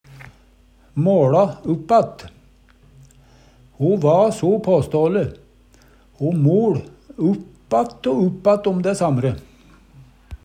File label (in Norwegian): målå uppatt, Numedalsmål; Uttrykk